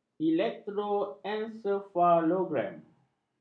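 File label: "elektroensefalogram", Malay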